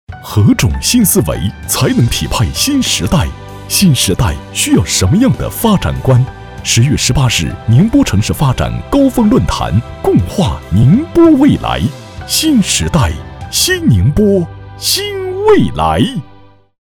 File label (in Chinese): 男-厚重广告; 城市发展论坛